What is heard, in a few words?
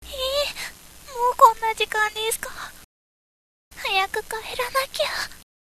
girl